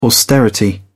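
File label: "austerity", British English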